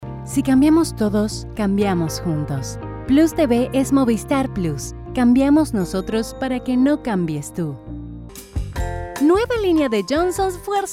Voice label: spanish latin american commercial